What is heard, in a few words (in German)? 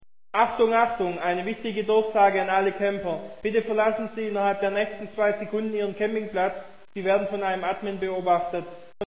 camper ansage; css; devil-hunter-multigaming